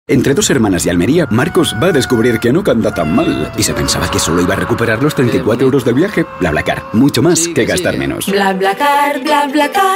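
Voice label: comercial, Spanish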